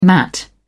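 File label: "mat", British English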